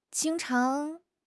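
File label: "jing1 chang2", Chinese; HSK; HSK2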